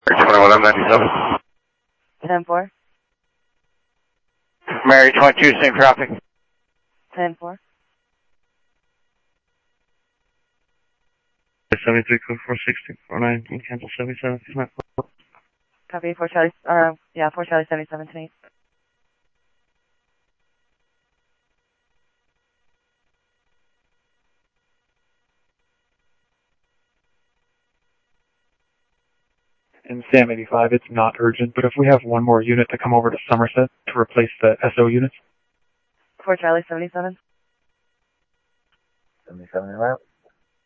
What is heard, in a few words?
Mesa Simulcast, MESA PD, scanner audio